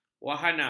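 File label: "wahana", Malay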